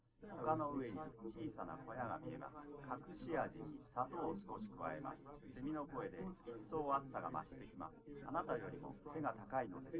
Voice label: male liverec 250ms 5cm; SiSEC10; underdetermined